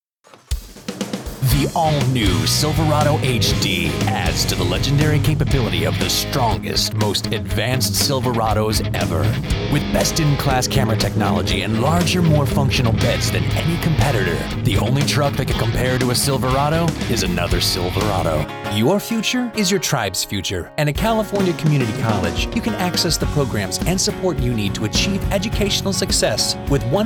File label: Commercial, North American Voice Over